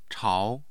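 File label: "chao", Chinese, second tone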